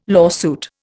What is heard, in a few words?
"lawsuit", Indian